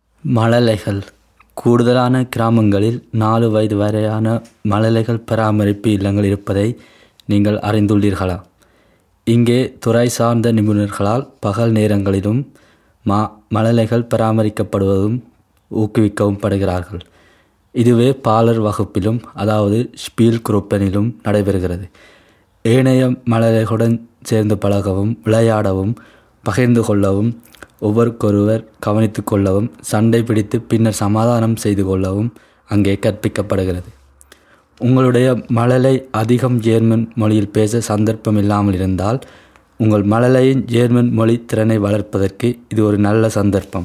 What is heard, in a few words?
tamil